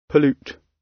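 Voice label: "pollute", British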